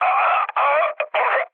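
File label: damaged pilot, Czech, mood med; speech; War Thunder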